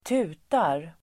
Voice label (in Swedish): "tutar", Swedish